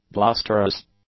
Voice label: "blusterous", English; adjectives